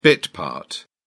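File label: "bit part", British English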